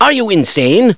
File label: fall insane, scientist; svencoop; echoes